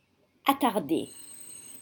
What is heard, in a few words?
"attardée", French